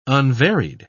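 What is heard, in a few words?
"unvaried", English, British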